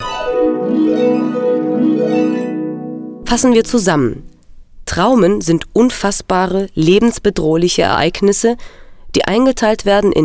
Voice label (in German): Deutsch; NAWA